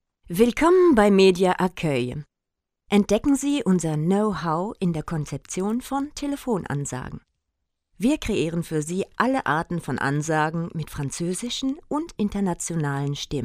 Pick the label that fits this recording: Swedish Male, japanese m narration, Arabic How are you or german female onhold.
german female onhold